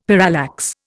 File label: "parallax", Indian; Dictionary